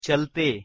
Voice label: "chalte" Hindi